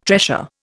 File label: "tressure", Indian